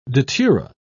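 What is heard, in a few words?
"datura", English, British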